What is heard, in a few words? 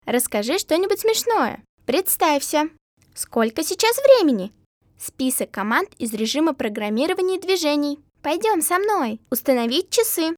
Girl